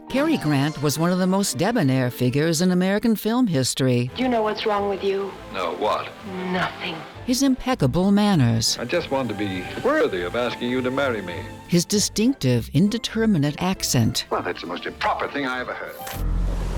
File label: Video TV narration